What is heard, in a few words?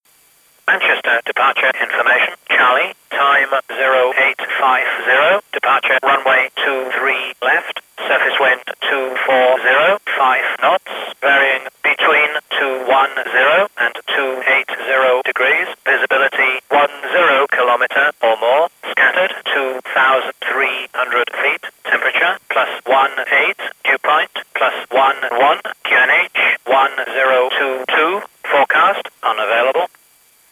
ATIS; Manchester